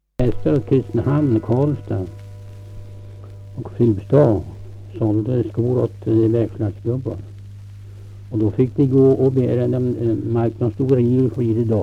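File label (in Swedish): Kumla Närke, dialekter; Folkminnen; Svenska sagor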